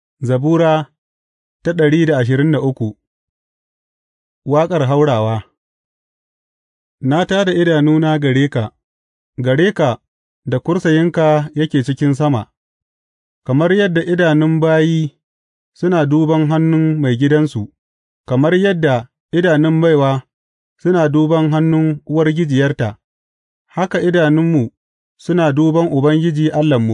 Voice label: hausa bible drama, psalms; hausa bsn nt drama